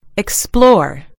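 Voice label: "explore", British English; gcompris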